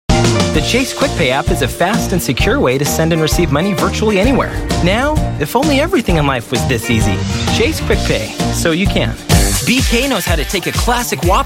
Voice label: commercials